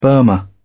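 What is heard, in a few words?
"burma", British English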